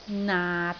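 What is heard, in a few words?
"naat6", Cantonese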